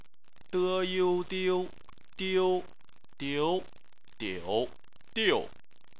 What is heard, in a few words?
"diu", chinese